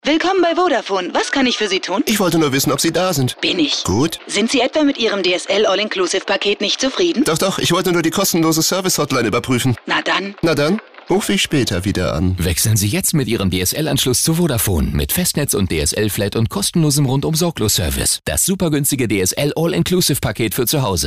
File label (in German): Sprecherin Hotline; WERBUNG; VODAFONE: Hotline